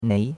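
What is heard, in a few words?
"nei", Chinese, second tone; Cours-de-Chinois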